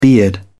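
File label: "beard", English, British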